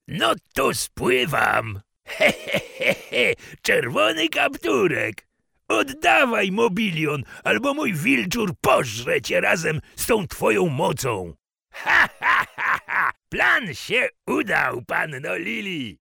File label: male polish voice over talent character